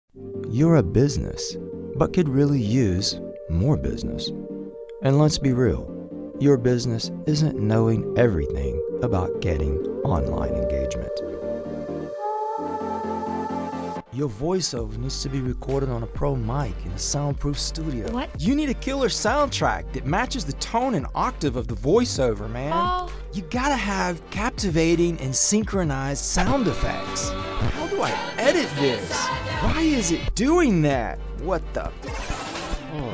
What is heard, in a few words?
Ad; Walkacrosit